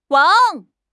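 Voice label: "wang3", Chinese; HSK; HSK2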